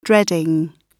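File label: "dreading", British English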